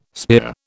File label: "spear", British